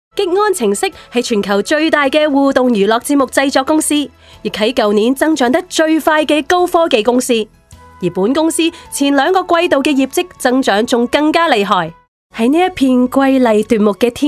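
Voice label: Cantonese, narration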